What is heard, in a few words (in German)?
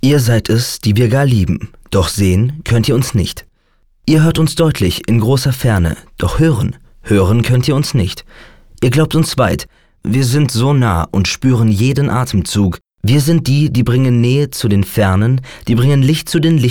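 sachlich, Sprecher, Männer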